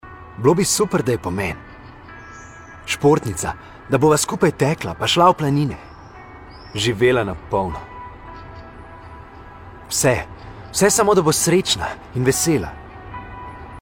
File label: male slovenian tv commercial; devine voices